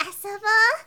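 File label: "asobo", Japanese, girl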